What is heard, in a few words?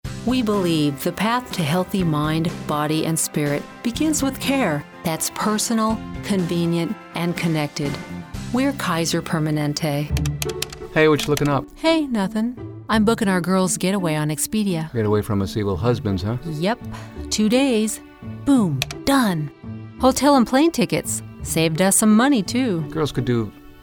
COMMERCIALS